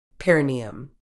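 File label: "perineum", medical English, British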